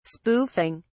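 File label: "spoofing", British English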